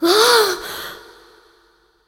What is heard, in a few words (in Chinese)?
death, zh